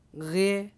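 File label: "rie", syllable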